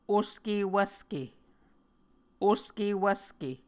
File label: "oske wvske", Muscogee